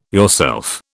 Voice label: "yourself", British